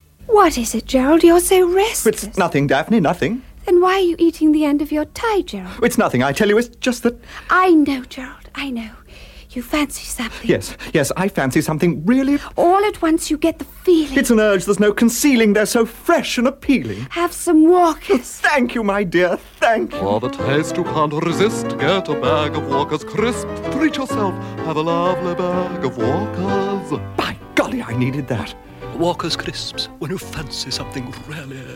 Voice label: Radio Advert; Walkers Crisps; Fancy Something; Radio Hallam